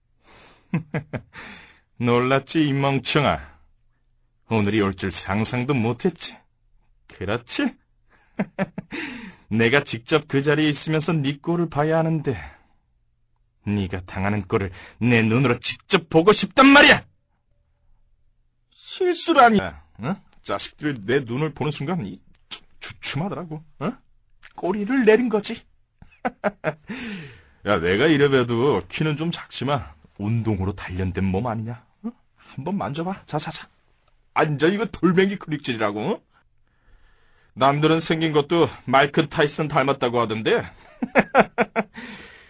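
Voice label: Korean, male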